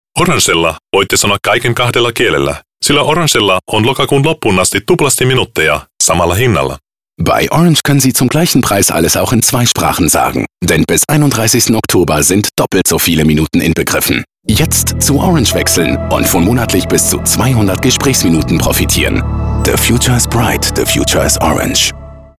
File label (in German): doppelte minuten finnisch